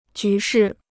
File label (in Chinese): "局势", Chinese